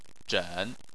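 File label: "zhen" Chinese, third tone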